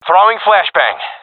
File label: throwing flashbang, professional epic; vo; Source Model Packs; Counter Strike Model Pack; CS2